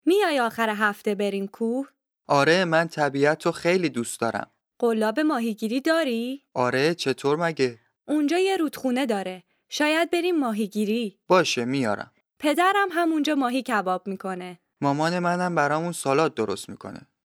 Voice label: Dialogue lesson Farsi